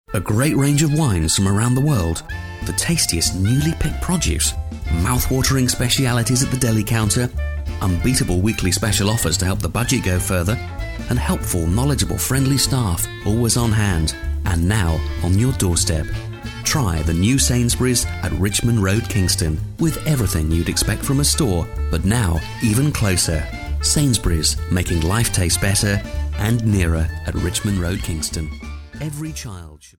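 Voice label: British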